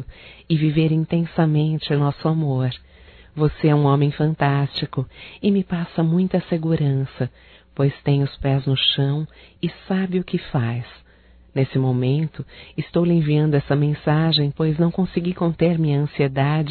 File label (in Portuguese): Aniv romântico; Aniversarios; Telemensagens; Telemensagens 2017